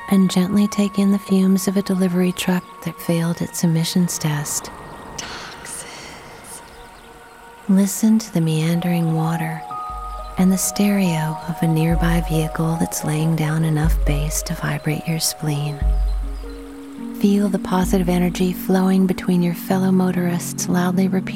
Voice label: Traffic Radio

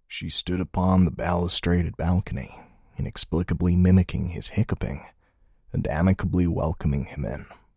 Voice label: American General Older

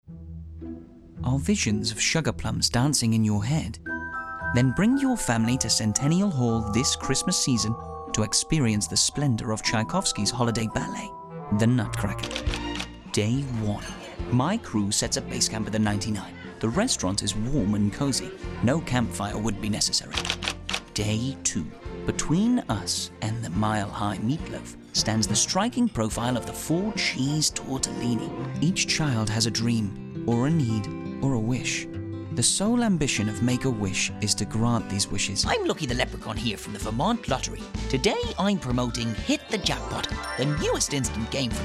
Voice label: Commercial Short Demo

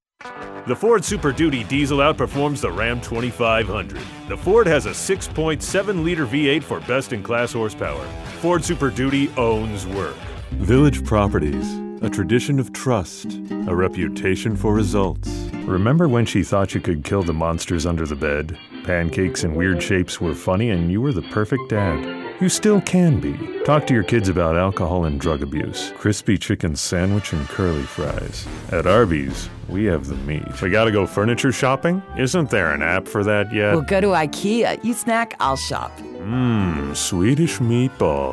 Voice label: Commercial

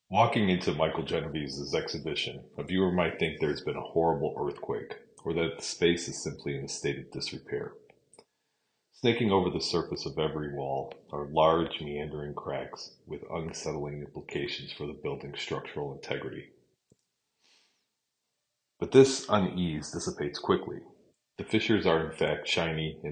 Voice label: Narrated

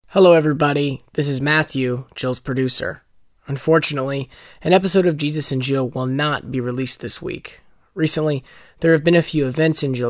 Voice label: Announcment

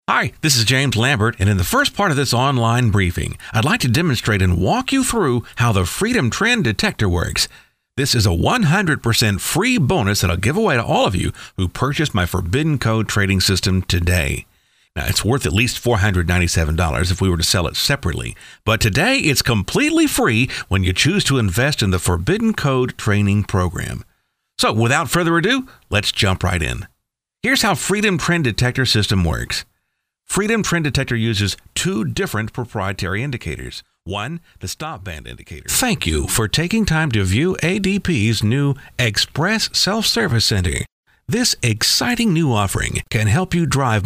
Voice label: PODCAST

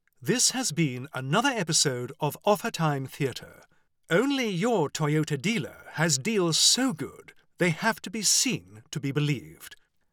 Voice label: Commercial

